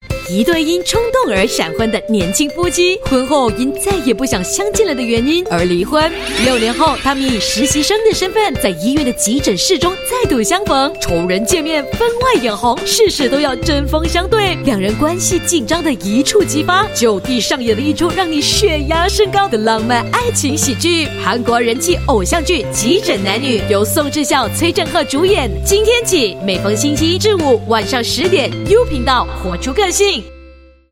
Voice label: Mandarin, China Female; Emergency Couple Tonight

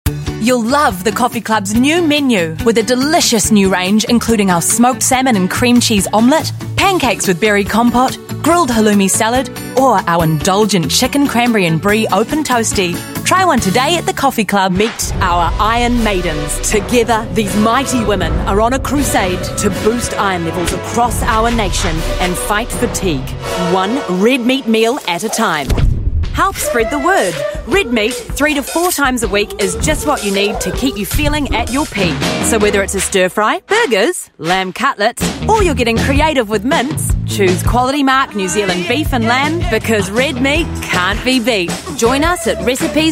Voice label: NZ English

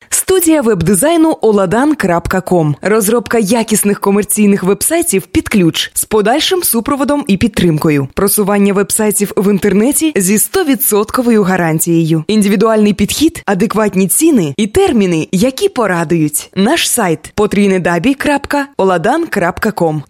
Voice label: Ukranian, Ukrainian Female

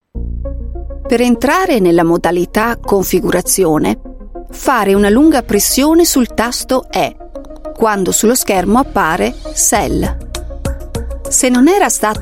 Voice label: Italian Female Corporate

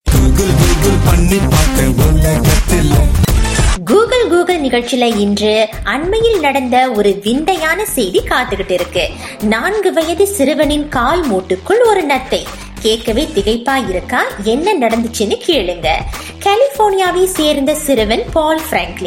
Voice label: Tamil, Female; India

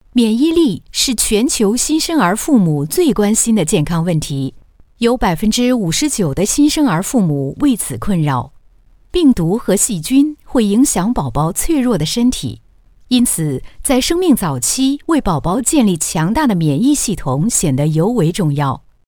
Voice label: Mandarin China; Friesland Campina

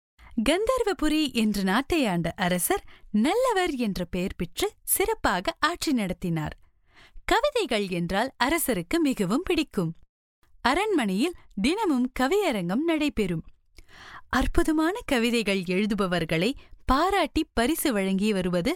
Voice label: Tamil India Female

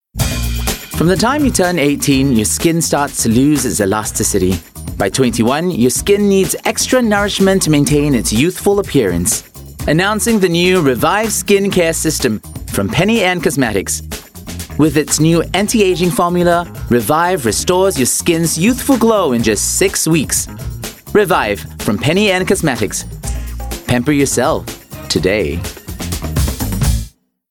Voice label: Asian English Cosmetics

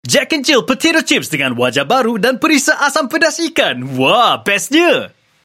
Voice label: Malay Malay Potato Chips; Jack N Jill

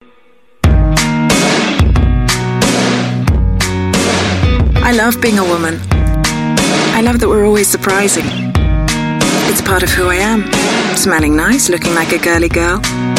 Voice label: UK English Soap; Lux Soap Boxer

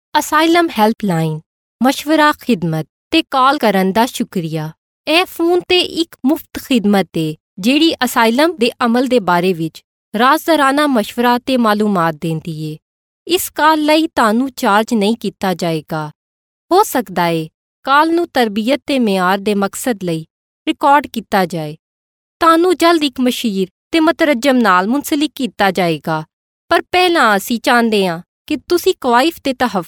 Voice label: IVRS Punjabi, Female; Urdu Female

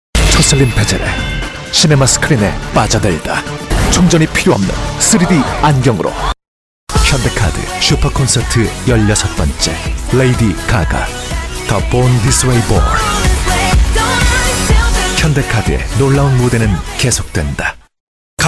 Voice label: Korean Thoughtful Read

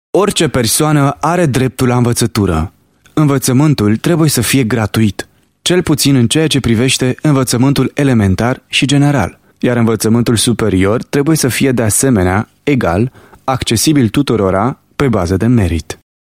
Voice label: Romanian Info

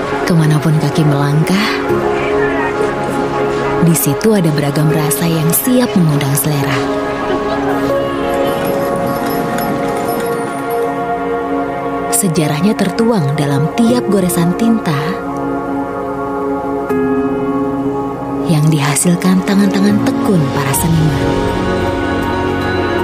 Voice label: Bahasa Indonesia story Jogja; Traveloka

